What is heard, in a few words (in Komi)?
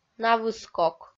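"навыскок", Komi, female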